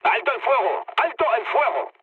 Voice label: player friendly fire, mood low, speech